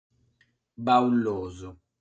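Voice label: "Baullosu", Sardinian word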